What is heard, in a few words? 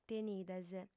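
"tenides", Sardinian, female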